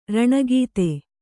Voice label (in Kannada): "ರಣಗೀತೆ", Kannada